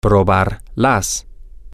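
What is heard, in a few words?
male, spanish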